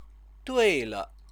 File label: "duile", Chinese; 对 (了); right, correct; stative verb